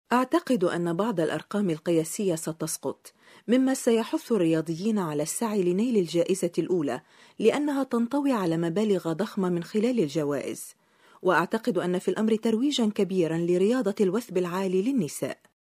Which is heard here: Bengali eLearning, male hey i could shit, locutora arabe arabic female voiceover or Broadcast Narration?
locutora arabe arabic female voiceover